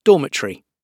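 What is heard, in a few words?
"dormitory" British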